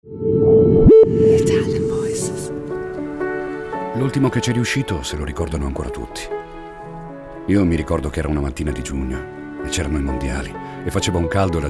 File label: ITALIAN VOICES, male voices; Naked Reel